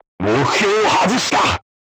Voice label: voice message armor not pierce, crew tanks japanese